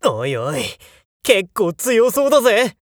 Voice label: nekketsu before